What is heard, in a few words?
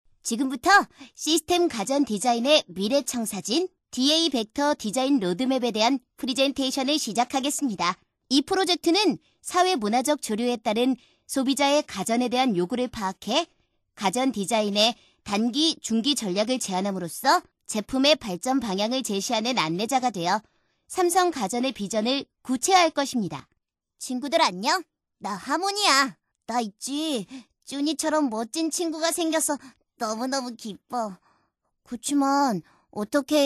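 Korean, female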